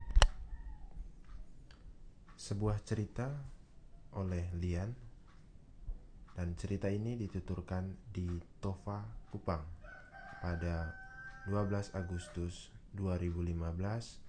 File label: Manggarai